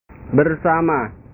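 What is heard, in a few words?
"bersama", Indonesian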